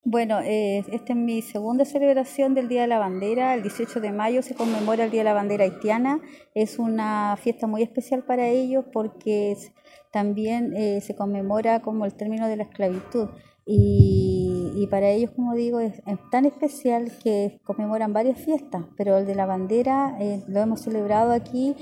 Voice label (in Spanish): Cuña; celebracion del dia de la bandera de haiti en temuco